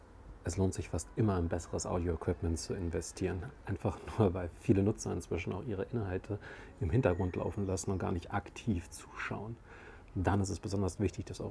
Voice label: Sennheiser XSW D Portable Lavalier Set Mic Test